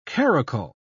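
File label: "karakul", British English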